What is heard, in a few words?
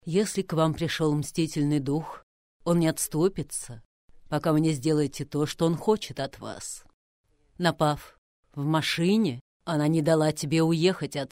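russian female narration; voice demos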